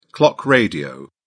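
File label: "clock radio", British English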